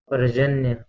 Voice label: "ParZanya", Marathi